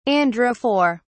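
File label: "androphore", British English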